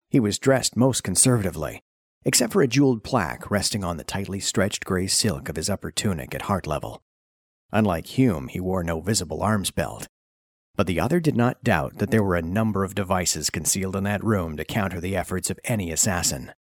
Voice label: male canadian voice over narrative